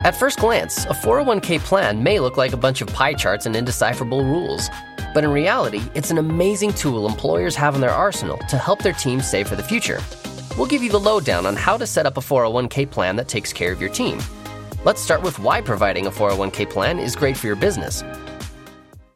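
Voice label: corporate, voiceover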